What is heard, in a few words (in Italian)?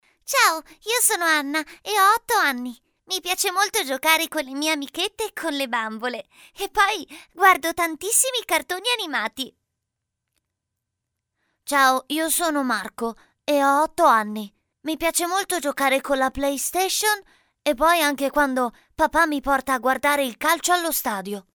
voci bambine